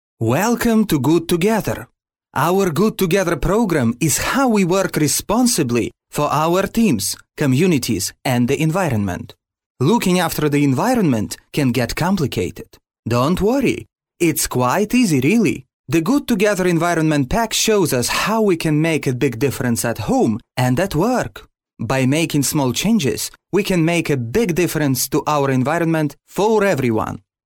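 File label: Ukrainian, male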